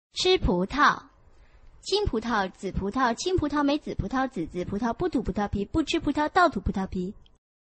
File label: chinese tongue twister